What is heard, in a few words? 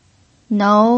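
"nou", second tone, Mandarin Chinese pinyin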